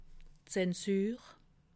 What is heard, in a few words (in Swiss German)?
"zensur", alsace